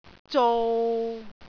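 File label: "zou6", Cantonese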